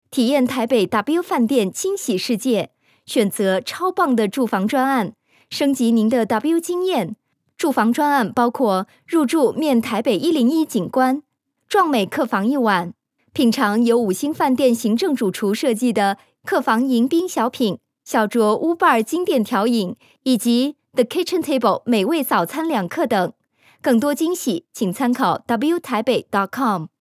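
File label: Chinese, IVR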